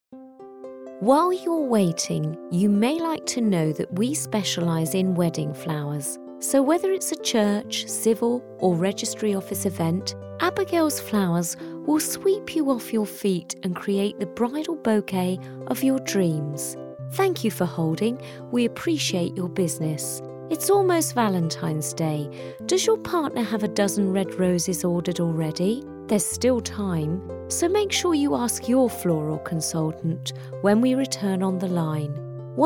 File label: IVR